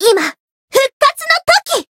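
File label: Voice healed, Japanese; suzette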